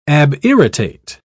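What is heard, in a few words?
"abirritate", British English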